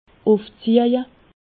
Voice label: "ufzeje", alsace